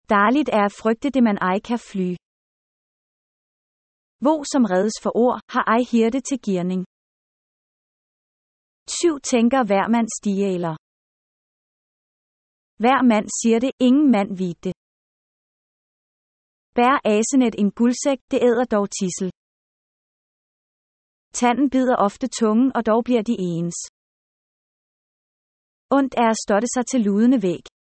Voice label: danish proverbs